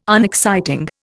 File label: "unexciting", Indian